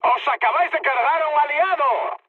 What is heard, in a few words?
player killed ally, mood med, speech; War Thunder